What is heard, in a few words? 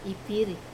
"piri" place, Wamesa